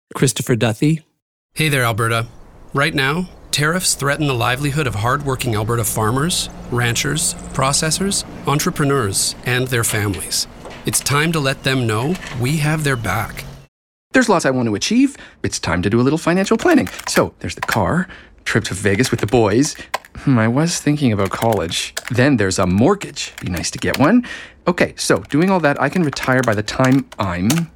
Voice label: Commercial